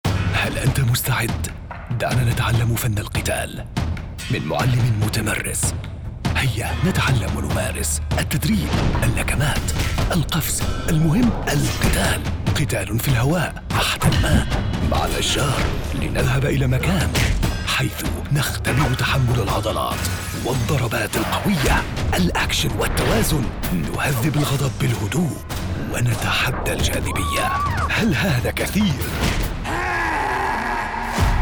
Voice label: arabic promos